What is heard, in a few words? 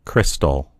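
"crystal", American English; gcompris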